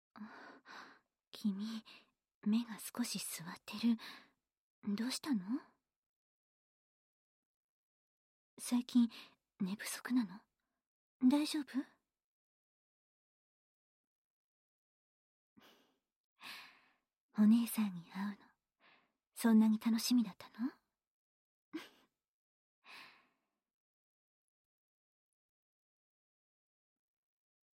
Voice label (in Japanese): 乳首オナニー ローション手コキ, 環境音なし 射精音あり; 乳首マゾ認定試験